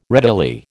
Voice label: "readily", American

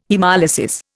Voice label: "haemolysis", Indian

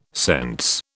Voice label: "sense", British

